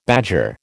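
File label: "badger", American English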